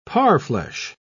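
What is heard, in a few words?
"parfleche", British English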